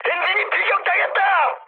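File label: Korean damaged engine mood high; speech; War Thunder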